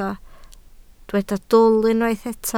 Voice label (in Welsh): "dwl", Welsh